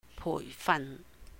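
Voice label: "puìh fan 培訓", Cantonese; to train, training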